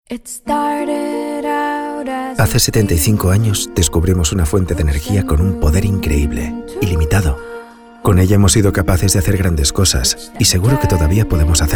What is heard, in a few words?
male spanish lottery comercial; ideasonora